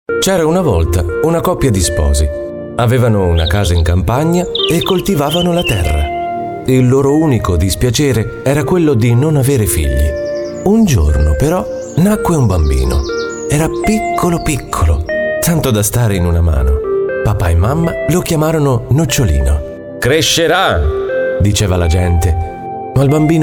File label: italian voice over male narrator